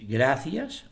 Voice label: "gracias", es; gracias [ˈɡɾaθjas]